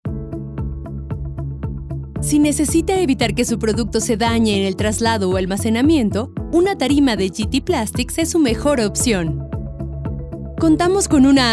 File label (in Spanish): msj en espera; Gt plastics